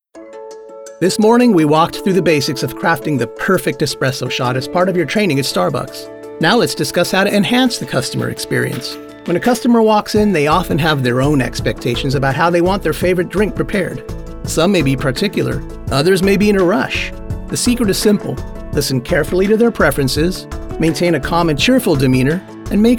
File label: eLearning friendly; male voice actor